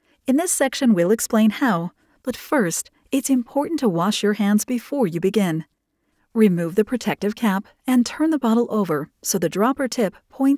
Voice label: med device elearning